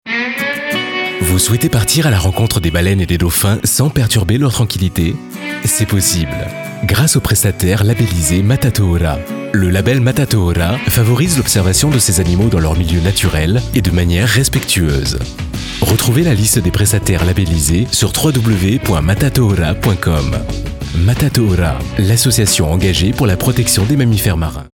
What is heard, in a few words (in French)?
radio pub; Label 2021 sans partenaires